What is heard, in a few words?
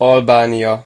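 "albania", Hungarian; gcompris; geography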